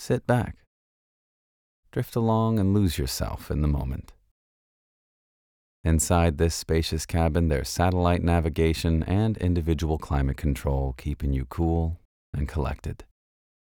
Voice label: Auto TVC; LOFT VOICES

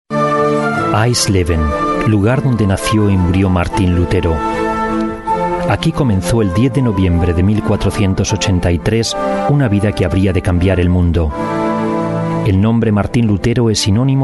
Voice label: es

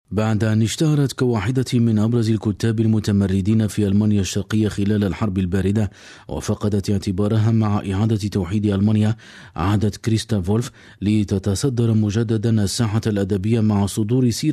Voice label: arabisch, Arabic, Mittel plus (35-65); Köln; voice

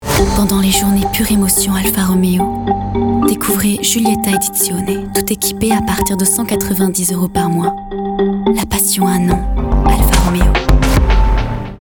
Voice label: commercial; alfaromeo

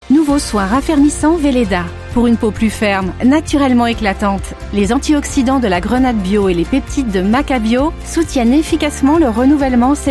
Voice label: Commercial FR; Weleda; LOFT VOICES

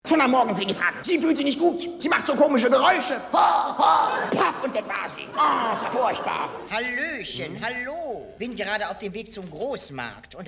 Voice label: comedy

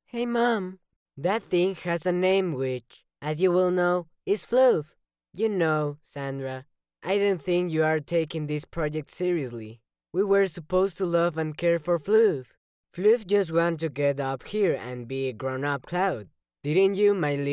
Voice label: Teen Real English

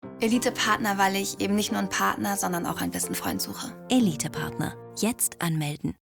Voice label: Commercial, German; Elite Partner

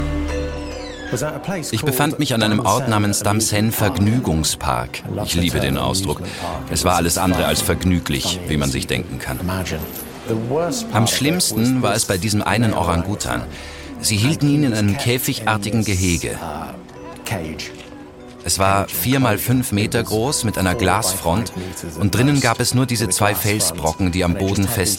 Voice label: Voice Over

